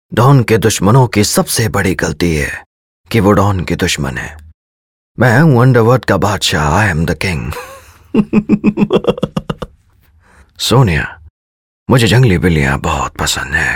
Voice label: Mimicry, male voice; Shahrukh khan